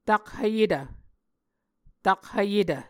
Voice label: "takhvyetv", Muscogee